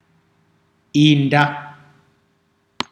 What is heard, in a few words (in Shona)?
louse, Ndau